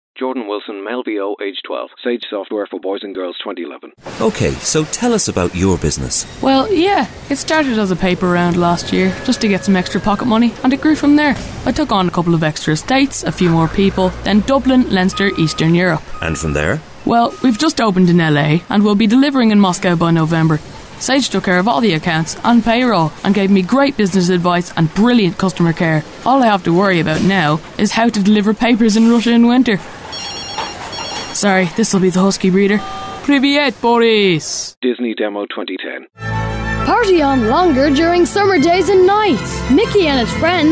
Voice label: Age 12; MVO